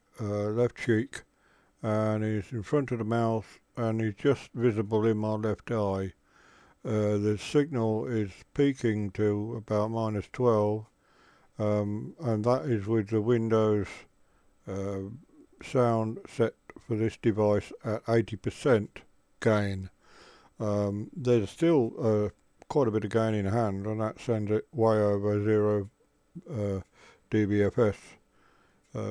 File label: headset